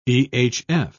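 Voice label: "ehf", British English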